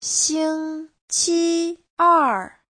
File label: "xing1qi1er4", Chinese